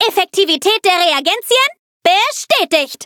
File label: victory, de